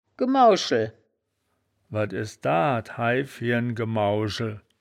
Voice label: "gemuschel", wittlich; das wittlicher woerterbuch; woerterbuch vertonung

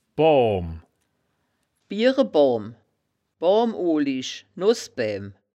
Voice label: "boom", woerterbuch, Wittlich

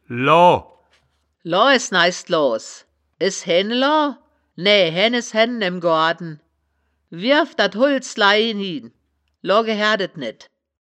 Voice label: "loa", Wittlicher; das wittlicher woerterbuch; woerterbuch vertonung

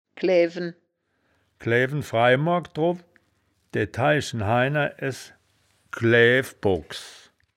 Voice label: "klaeaewen", wittlich

